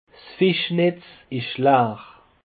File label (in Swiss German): "fischntzlaar", alsace; peche